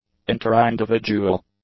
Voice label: "interindividual", English; adjectives